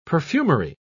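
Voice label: "perfumery", British English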